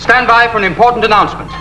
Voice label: Announcement